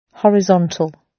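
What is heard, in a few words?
"horizontal", British English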